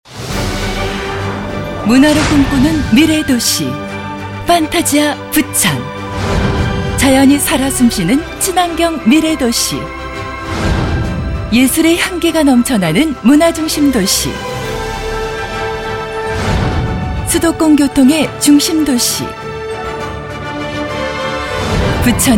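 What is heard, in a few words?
Korean, female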